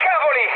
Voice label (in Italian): trick, mood med, speech it; War Thunder